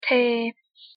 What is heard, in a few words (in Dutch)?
"Tee", Zwitsers-Duits; thee-in-andere-talen